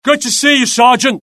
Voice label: alert, UK; mohaa; dialogue